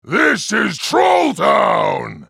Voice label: spellcast effort, en US; Trundle